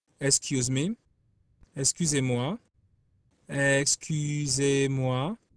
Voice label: French Excuse

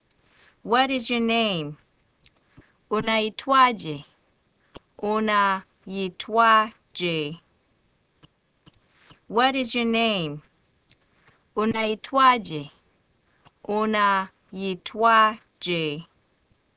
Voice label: Swahili Name

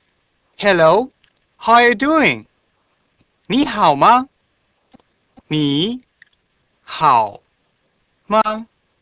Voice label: Mandarin Hello

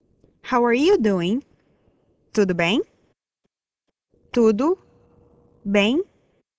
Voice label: Portuguese Greet

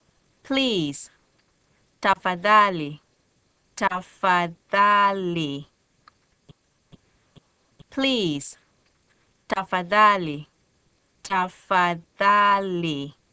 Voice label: Swahili Please

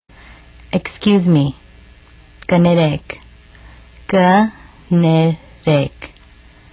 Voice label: Armenian Excuse

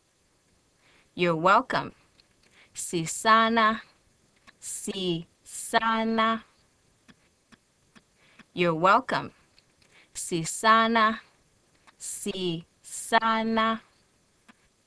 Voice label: Swahili Welcome

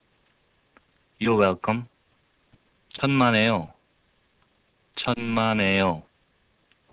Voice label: Korean Welcome

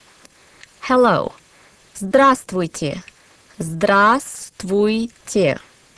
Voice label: Russian Hello

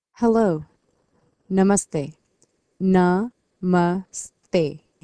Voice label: Hindi Hello

